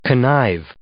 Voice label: "connive", English